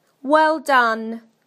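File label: "well done", British English; PictureDictionary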